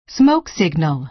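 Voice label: "smoke signal", American English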